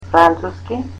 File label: "French" Macedonian